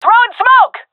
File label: throwing smoke, vo fem; agents; Source Model Packs; Counter Strike Model Pack; CS2